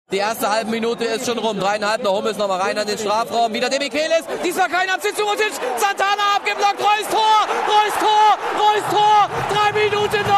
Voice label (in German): Fussballkommentator